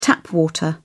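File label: "tap water", British English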